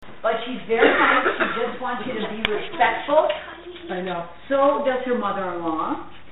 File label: little girl talking; palmyra museum